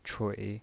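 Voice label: "chui", Mandarin Chinese pinyin voice, third tone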